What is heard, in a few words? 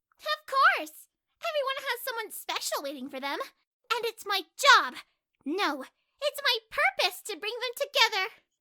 voice prologue; mika